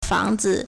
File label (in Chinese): "fang2zi", Chinese